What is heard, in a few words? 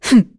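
Vox Landing, voices heroes English; kingsraid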